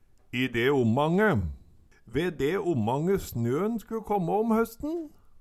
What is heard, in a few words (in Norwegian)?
"i de omange", Numedalsmål; Ve de omange snøn sku kåmmå om høsten